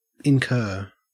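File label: "incur", Australian English; pronunciations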